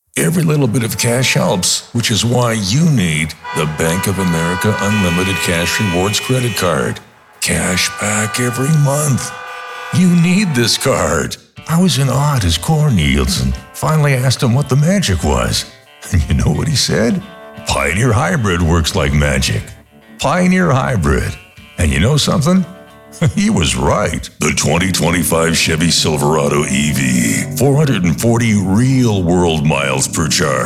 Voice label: Commercial